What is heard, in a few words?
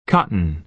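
"cotton", American English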